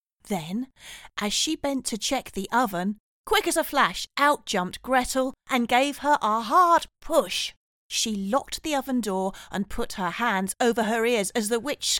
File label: Childrens Read